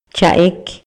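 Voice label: Maya, male; RELACIONES POLITICAS Y SOCIALES; INALI; MayaYuc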